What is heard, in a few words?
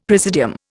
"presidium", Indian; Dictionary